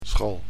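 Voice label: "school", Dutch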